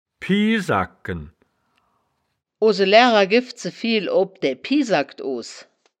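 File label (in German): "piesagken", wittlicher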